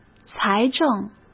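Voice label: "财政", Mandarin Chinese; chinese-flashcards; hsk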